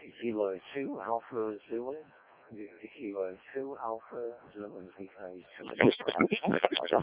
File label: decoded speech, 7177KHz